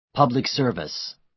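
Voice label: "public service", American English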